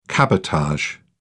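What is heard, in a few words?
"cabotage", British English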